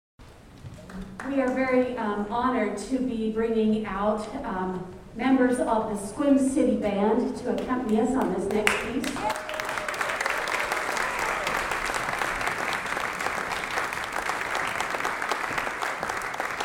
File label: Narration